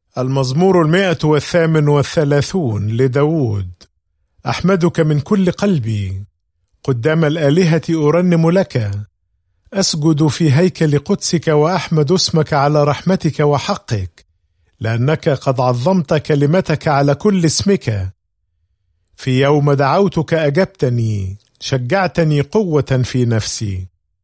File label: Psalm, arabic bible; psalms